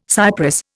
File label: "cypress", Indian